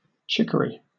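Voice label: "chicory", British English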